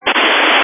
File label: Family Radio Service (FRS)